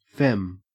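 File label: "fem", Australian English; pronunciations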